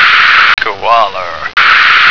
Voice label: "koala", American

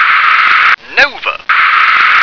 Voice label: "nova", English

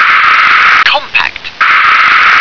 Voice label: "compact", English

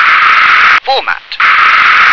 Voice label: "format", English

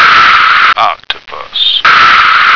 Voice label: "octopus", American

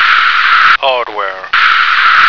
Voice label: "hardware", American

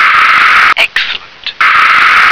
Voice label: "excellent", English

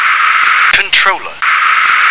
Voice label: "control", English

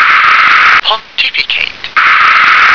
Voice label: "pontificate", English

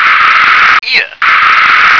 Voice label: "ear", English

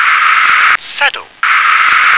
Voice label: "saddle", English

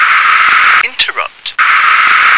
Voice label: "interrupt", English

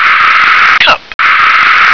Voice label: "cup", English

